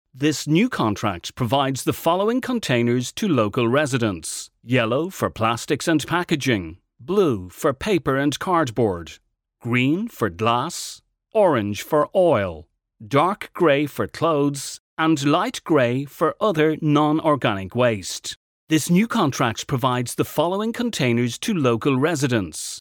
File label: english irish voiceover locutor irlanda